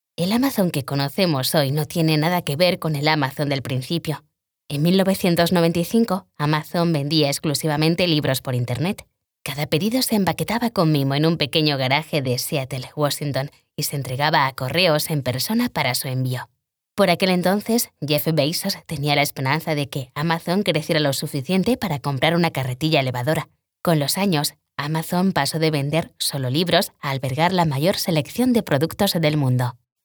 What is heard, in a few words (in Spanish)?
locutora video corporativo; amazon casting